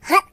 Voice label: Jump, voices Surfer; hedgewars